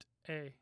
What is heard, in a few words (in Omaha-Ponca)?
"te", Omaha language